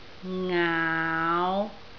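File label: "ngaau5", Cantonese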